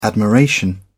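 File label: "admiration", British English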